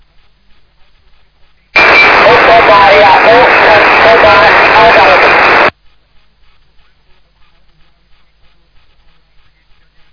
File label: LSB, amaterske radio; SSB-LIGA; ssb liga s mp3 nahravkami spojeni maj 2025